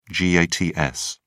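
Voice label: "gats", British English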